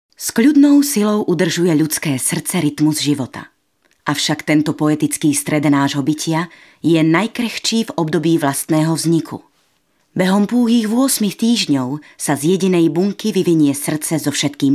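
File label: Female Slovak documentary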